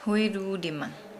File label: Nukuoro; wash hands